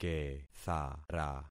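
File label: "kethara", concatenated syllables; Affixing experiment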